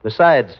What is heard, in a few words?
"besides", male